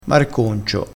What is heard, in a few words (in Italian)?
"marconcio", crespinese, vocabolario dialettale